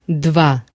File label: "dva", Croatian